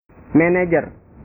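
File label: "menejer", Indonesian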